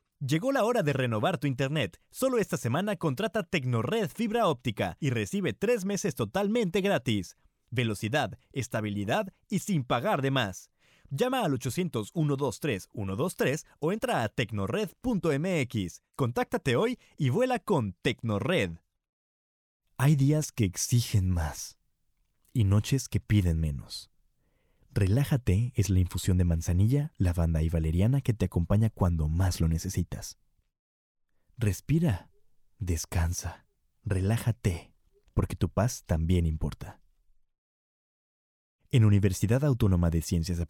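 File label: Latin American Spanish, General; GoLocalise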